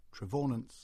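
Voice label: "Trevaunance" English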